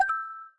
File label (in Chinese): 可可爱爱